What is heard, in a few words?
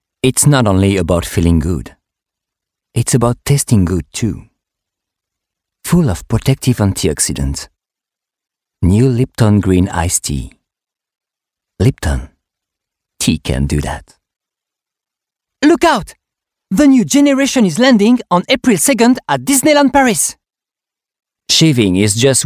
French Accented English